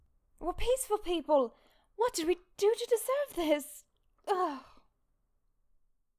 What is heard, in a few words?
Actress Were peaceful people what did we do to deserve this ugh; Actress 2